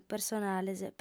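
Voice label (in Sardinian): "personales", female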